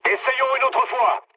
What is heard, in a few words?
bomb failure mood med, speech fr; War Thunder